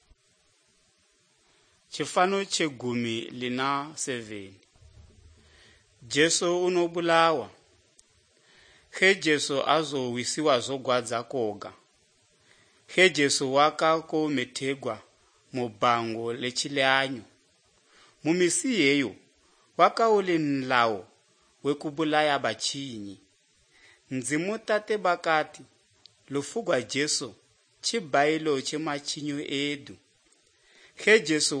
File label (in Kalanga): good news kalanga lilima, drama